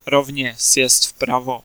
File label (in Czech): Ah Exit Right, Czech, male